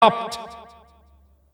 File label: enemy flag dropped, announcer; tf2cciv; open fortress